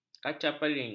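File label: "kacapiring", Malay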